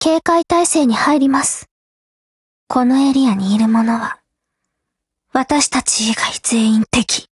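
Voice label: operation begin, Japanese; HK33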